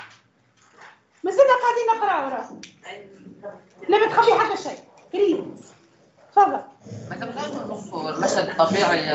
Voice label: arabic teacher

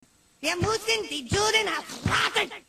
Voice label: german hate speech; Cartman

